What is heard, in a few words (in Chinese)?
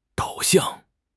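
"导向", Chinese; HSK6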